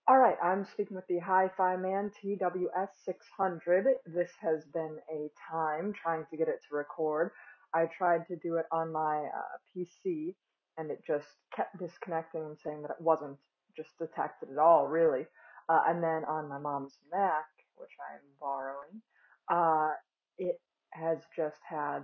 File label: HiFiMan TWS600 microphone